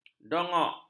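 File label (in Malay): "dongok", Malay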